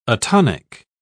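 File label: "atonic", English, uk British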